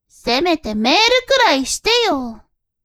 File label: woman